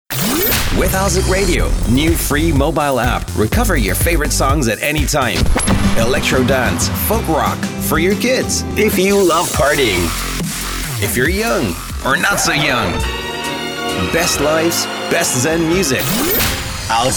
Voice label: American radio imaging